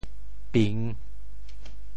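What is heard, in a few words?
"ping3", Teochew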